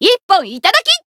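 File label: finish, Japanese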